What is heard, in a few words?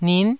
"nin9", Taiwanese syllable